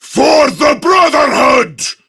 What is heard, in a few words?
Attack Prime Grineer Male; Tau; Battle Barks